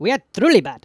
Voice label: "bummer", Speech; Worms Armageddon; The Raj